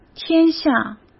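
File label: "天下", Mandarin Chinese; hsk; chinese-flashcards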